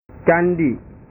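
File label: "candi", Indonesian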